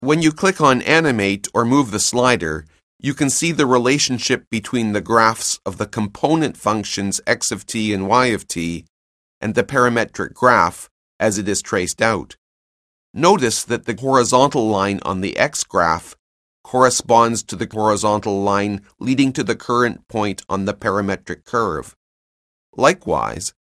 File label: narration; CALCULUS 7E; cengage